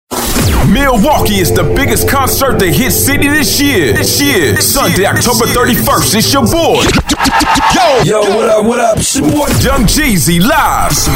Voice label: VOICE OVER DEMO